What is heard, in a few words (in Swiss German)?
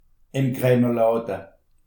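"kramerlade", Alsatian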